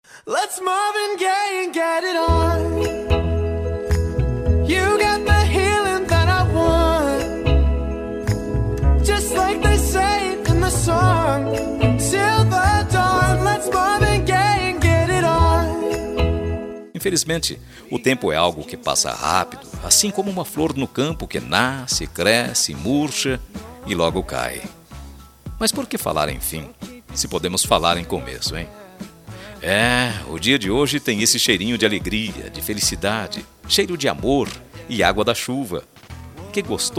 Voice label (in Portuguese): ANIV NEUTRO MASC, Telemensagem Aniversário Neutro